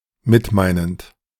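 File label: "mitmeinend", German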